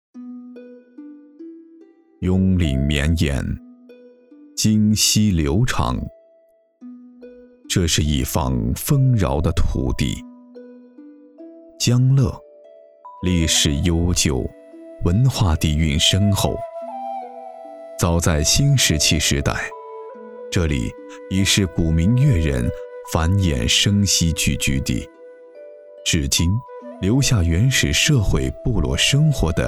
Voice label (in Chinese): 宣传片, 历史感; 镛城将乐